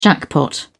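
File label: "jackpot", British English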